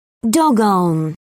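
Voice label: "doggone", British English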